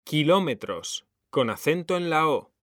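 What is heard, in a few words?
"kilómetros", Spanish, male; mekanta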